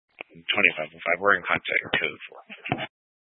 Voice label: Mesa County Sheriff Dispatch